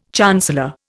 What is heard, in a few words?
"chancellor", Indian